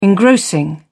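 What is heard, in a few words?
"engrossing", British English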